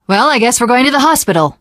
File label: teengirl, voice; Left 4 Dead 2; Source Engine; video games